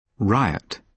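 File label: "riot", British English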